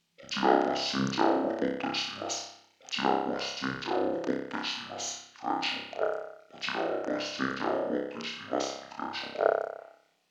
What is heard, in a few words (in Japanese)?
ボイスチャンジャー ロボット